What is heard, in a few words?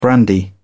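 "brandy", British English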